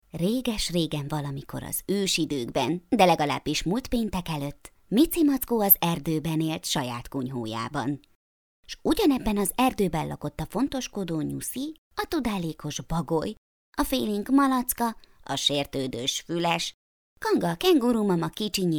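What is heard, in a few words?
hungarian female narration; voice demos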